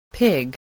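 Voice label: "pig", spoken word, spelling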